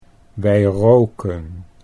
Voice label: "wij roken", Dutch

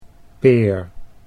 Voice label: "peer", Dutch

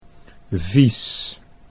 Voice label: "vies", Dutch

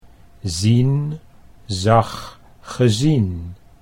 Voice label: "zien zag gezien", Dutch

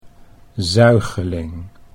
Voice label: "zuigeling", Dutch

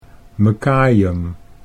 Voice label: "mekajem", Dutch

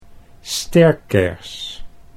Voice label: "sterkers", Dutch

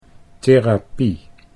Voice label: "therapie", Dutch; 'therapy'

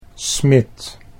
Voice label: "smid", Dutch

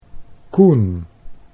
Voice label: "Koen", Dutch

